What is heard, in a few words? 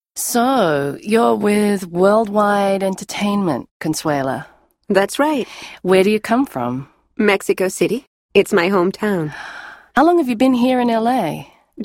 Conversation, listening